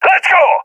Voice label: request move, balkan; vo; balkan epic